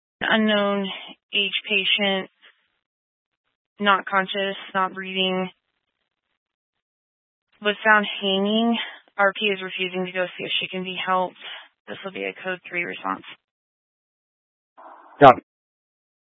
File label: Fire Dispatch